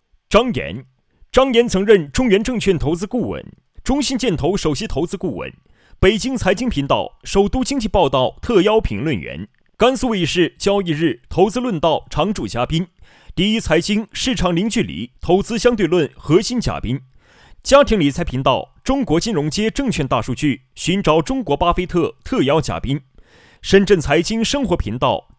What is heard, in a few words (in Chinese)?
配音